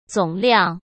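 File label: "总量", Mandarin Chinese; hsk; chinese-flashcards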